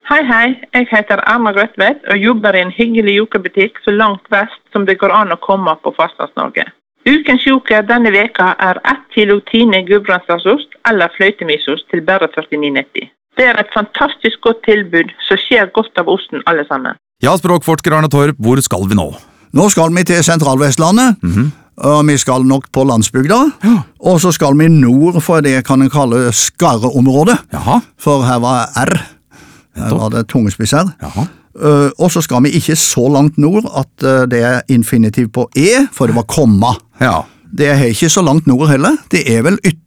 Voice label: radiospots